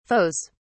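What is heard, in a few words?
"foes", British English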